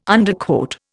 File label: "Underquote", Indian